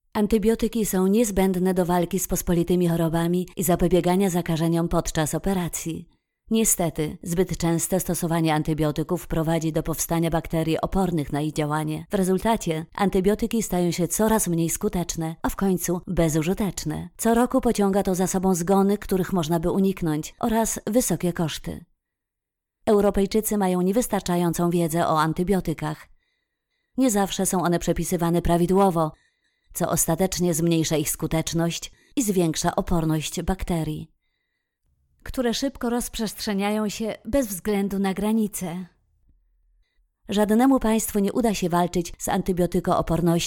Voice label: Polish Narration